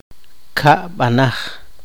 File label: poqomchi; verbo transitivo